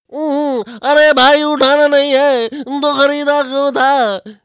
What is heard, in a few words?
Hindi Comedy Dialouge Ringtone; Oohuu Uthana Nahin Tha Toh Kharida Kyun Tha